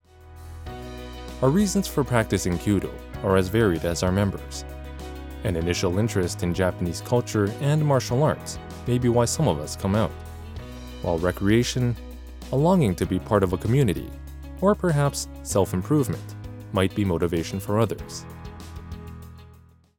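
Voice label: video Narration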